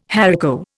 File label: "haricot", Indian